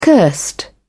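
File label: "cursed", British English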